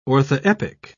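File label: "orthoepic", British English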